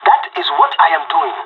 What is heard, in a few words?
agree, pirate; Counter Strike Model Pack; CS:GO